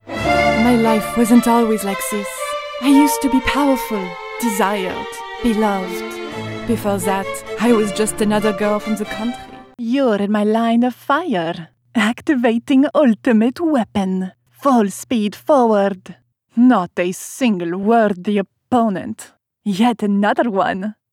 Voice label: animation eng

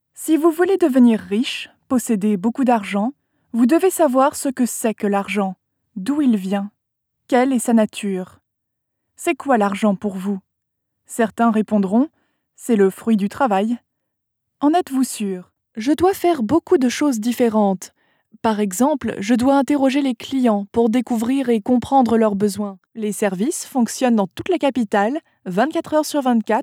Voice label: french elearning

